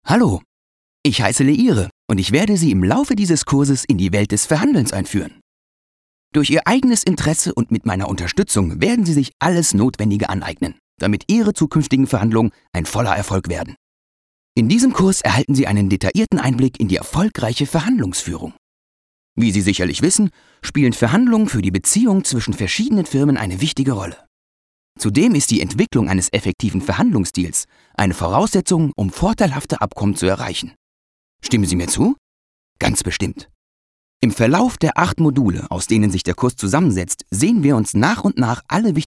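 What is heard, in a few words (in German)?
elearning, deutsch männer; sprecher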